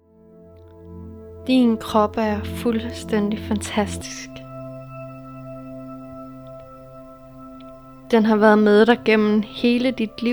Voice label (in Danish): hypnose meditation; SMAGSPROeVE